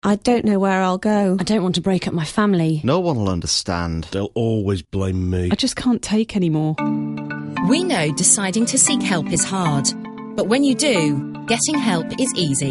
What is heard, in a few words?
radio advert urging victims of domestic violence to call; in leicester